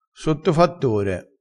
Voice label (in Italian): "sottofattore", crespinese